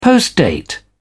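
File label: "postdate", British English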